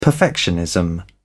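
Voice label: "perfectionism", British English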